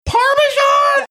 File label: saying "parmesan" falsetto